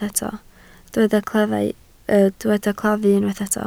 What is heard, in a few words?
"claddu", Welsh